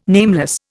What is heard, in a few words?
"nameless", Indian